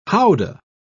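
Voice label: "howdah", British English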